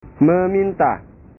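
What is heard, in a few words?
"meminta", Indonesian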